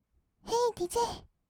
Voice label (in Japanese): ヘイDJ, kawaii voice; 音ゲー